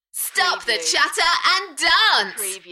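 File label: UK female, Enthusiastic, DJ vocals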